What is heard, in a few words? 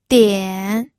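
"dian", Mandarin Chinese, third tone; pinyin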